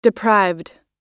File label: "deprived", us